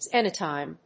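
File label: "xenotime", English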